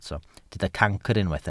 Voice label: "cancr", Welsh

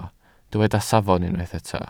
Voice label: "safon", Welsh

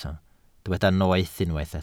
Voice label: "noeth" Welsh

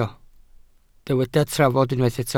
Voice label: "trafod" Welsh

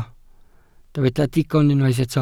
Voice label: "digon", Welsh

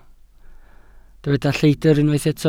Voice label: "lleidr" Welsh

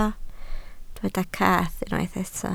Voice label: "cath", Welsh

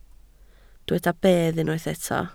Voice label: "bedd", Welsh

